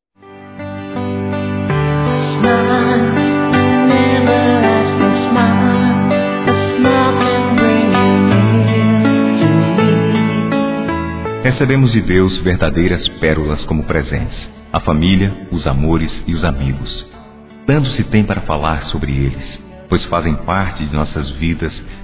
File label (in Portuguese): Amizade Geral Masculino; Telemensagens